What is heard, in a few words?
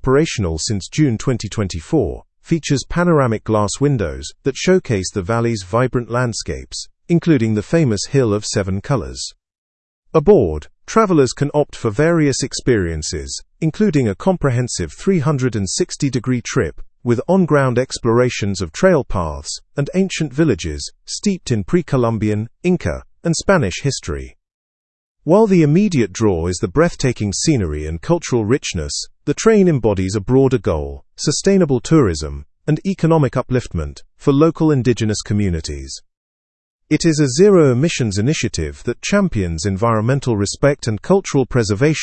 learn english through news advanced fast; discover history on argentina s first solar train